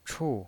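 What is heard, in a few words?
"chu", Mandarin Chinese pinyin voice, fourth tone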